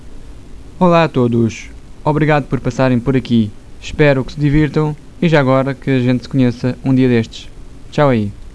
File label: portuguese voice